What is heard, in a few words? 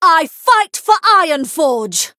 Female Human Greetings